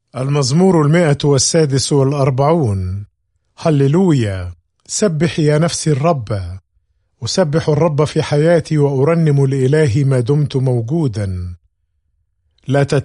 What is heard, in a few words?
Psalm, arabic bible; psalms